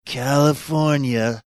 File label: saying california funny